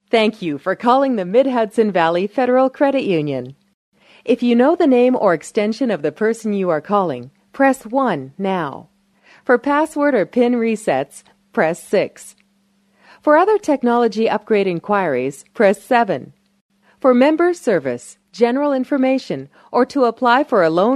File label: Voice Prompt